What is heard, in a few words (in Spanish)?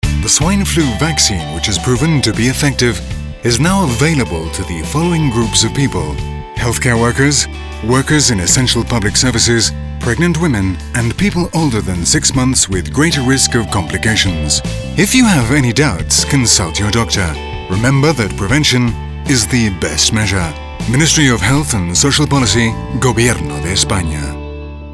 INGLES